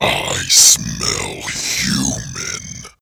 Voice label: Demon Speaking I Smell Human